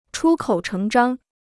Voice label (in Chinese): "出口成章", Chinese